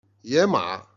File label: "jian", Chinese